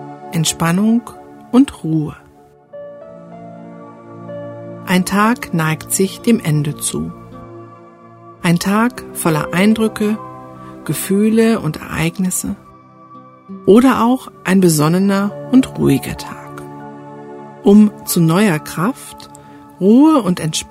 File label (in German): power meditation am abend, Hörbuch